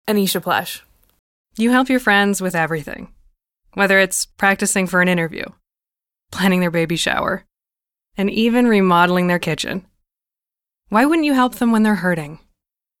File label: Commercial